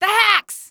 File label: the hacks, female; Half-Life 2